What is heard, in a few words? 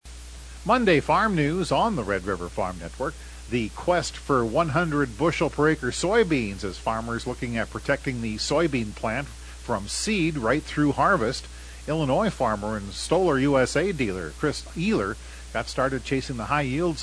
air check